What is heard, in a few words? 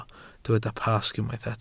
"Pasg", Welsh